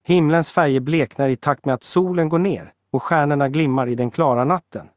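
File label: Swedish, Sweden; iOS Sounds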